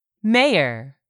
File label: "MAYOR", english; 3000 words